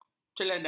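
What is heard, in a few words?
"celadang", Malay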